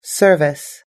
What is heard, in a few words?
"service", US English